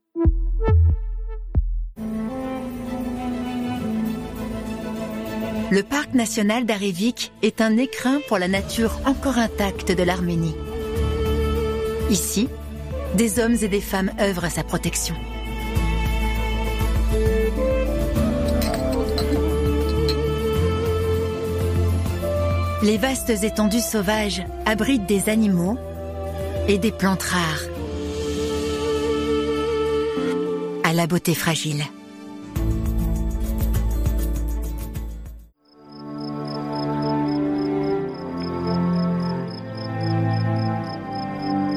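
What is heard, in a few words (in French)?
Doc intro et conclu, comédienne voix off; les Parcs N. d'Armenie; Arte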